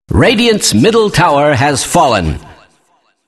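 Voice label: announcer tower fall radiant mid; Kleiner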